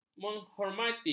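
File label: "menghormati", Malay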